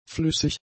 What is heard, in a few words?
"flüssig", German; adjectives